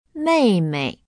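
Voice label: "meimei", Chinese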